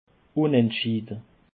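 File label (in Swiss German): "unendschiede", Alsatian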